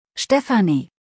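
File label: "Stephanie", German; maedchennamen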